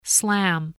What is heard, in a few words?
"slam", English